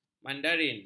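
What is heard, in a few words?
"mandarin", Malay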